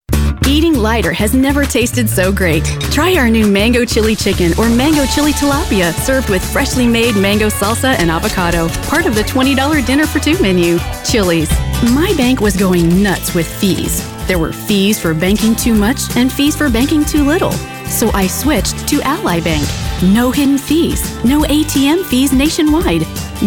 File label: female voice talent